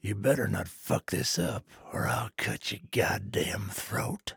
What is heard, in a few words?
trader male dislike quest accepted